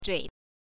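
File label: "zhui", Mandarin Chinese, neutral tone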